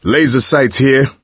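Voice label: laser sights, voice, male; Left 4 Dead 2; coach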